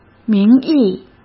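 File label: "名义", Mandarin Chinese; hsk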